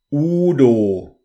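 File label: "udo", German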